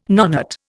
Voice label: "nonet", Indian

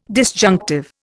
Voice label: "disjunctive", Indian

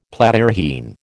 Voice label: "Platyrrhine", American

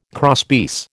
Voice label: "crosspiece", American

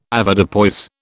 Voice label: "Avoirdupois", British

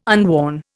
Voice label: "unworn", Indian

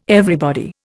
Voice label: "everybody", Indian

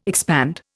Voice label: "expand", Indian

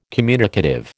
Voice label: "communicative", American

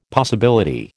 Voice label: "possibility", American

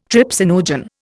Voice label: "trypsinogen", Indian

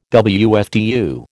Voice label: "WFTU", American

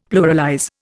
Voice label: "pluralize", Indian; Dictionary

